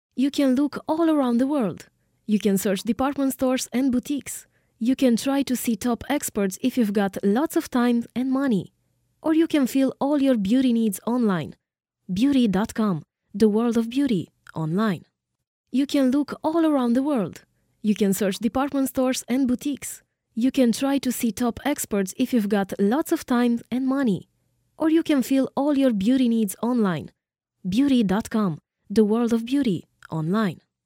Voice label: female romanian voice over english